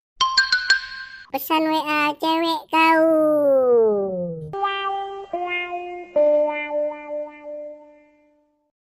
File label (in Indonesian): nada notifikasi pesan wa cewek